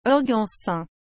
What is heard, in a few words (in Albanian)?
"organsin", frengjisht